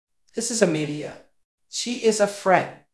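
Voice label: Voice Acting, Spanish, male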